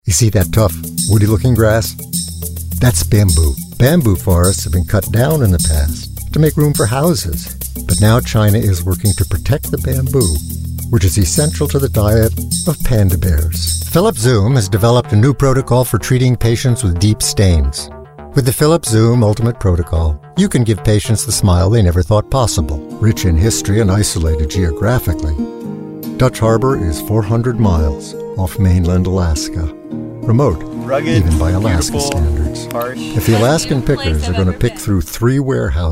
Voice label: Narration